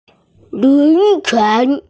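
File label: "steamship", Mandarin, hearing-impaired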